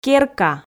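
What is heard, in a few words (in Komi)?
"керка", Komi, female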